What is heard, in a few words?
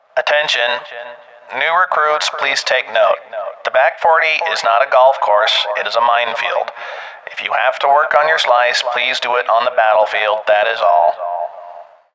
base pa announcement; foreas; mayfield rpg; NUCLEAR FIST DETECTED